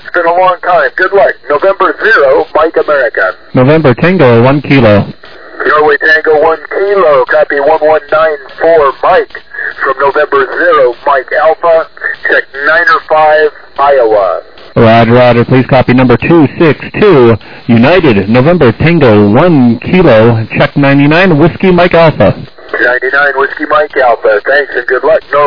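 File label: upper sideband 21MHz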